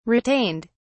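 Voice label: "retained", English, British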